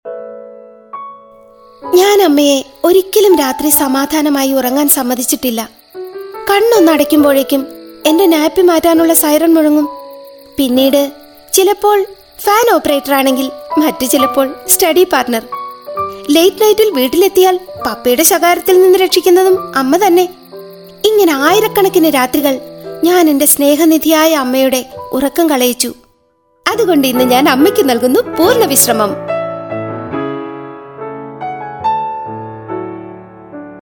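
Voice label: Malayalam female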